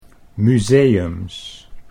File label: "museums", Dutch